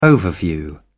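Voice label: "overview", English, British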